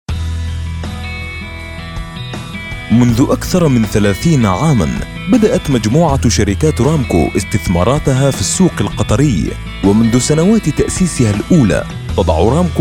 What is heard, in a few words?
Real Estate Commercial Classical Arabic